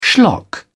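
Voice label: "schlock", British English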